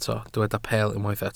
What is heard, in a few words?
"peel", Welsh